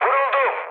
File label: aircraft damaged mood high, speech; War Thunder